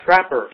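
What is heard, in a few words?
"trapper", US English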